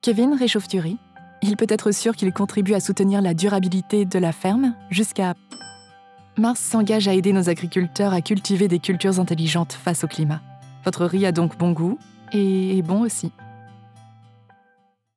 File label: corporate